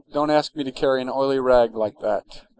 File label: speech est